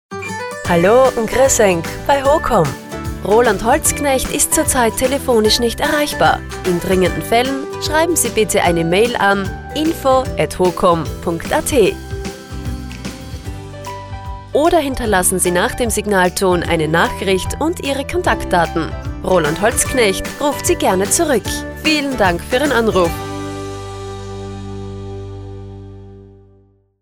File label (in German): Mobiltelefon, telefonansagen; HOCOM Umhausen; telefonansagen sonstige